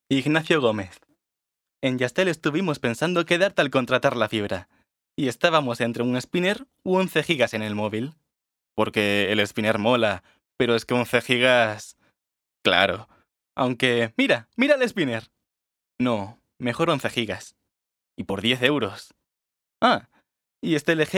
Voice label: Publicidad

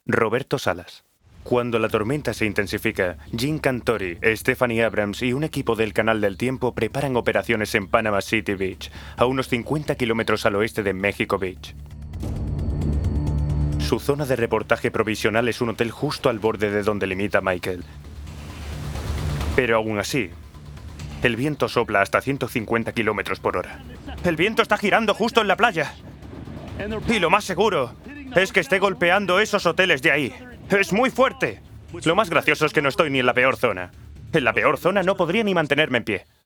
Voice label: Documental, voces

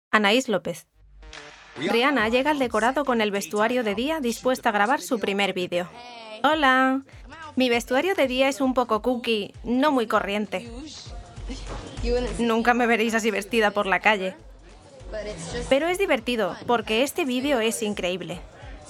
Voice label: Documental

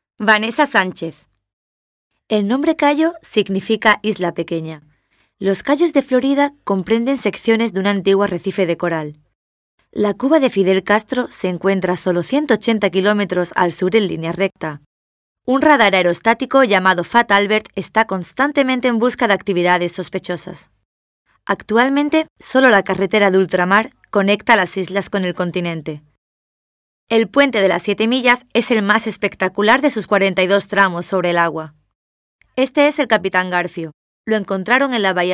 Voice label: Docu

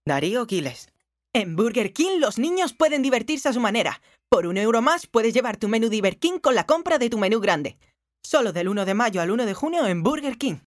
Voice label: Publicidad

